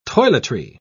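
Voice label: "toiletry", British English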